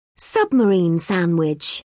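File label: "submarine sandwich", British English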